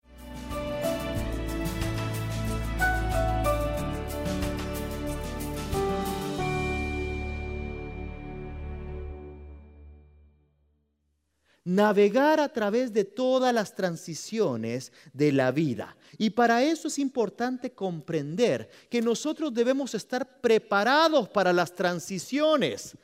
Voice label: Spanish Sermon

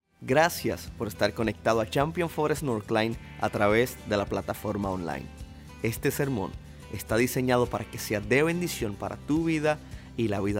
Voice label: Sermon Spanish

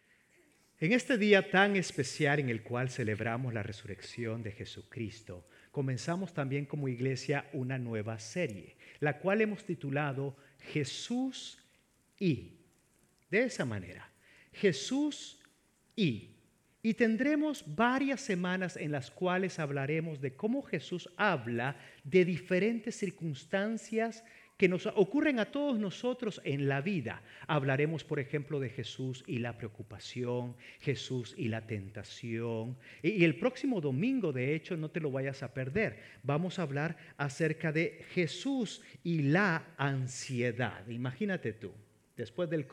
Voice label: SERMON SPA AUDIO

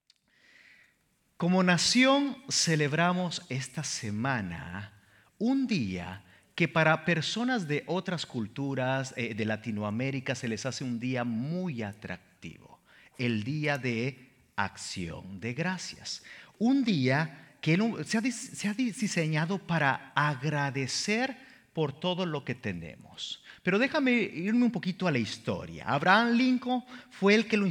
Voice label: SERMON SPA

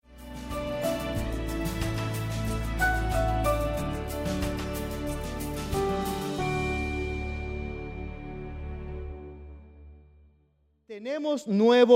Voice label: Spanish Sermon

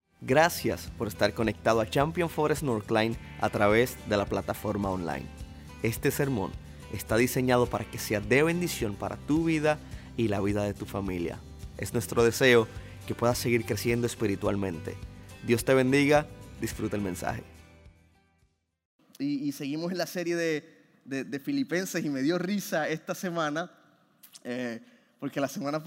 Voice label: Sermon Spanish

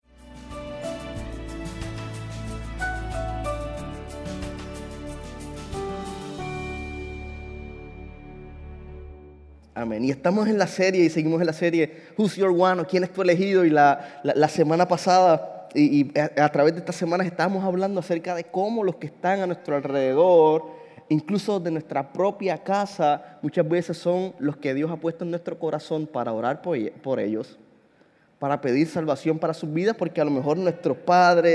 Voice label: Sermon Spanish

